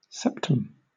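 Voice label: "septum", British English; pronunciations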